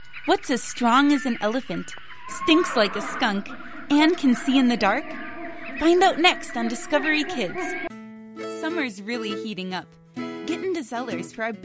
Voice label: Commercial